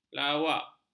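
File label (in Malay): "lawak", Malay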